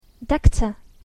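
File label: "doctor", English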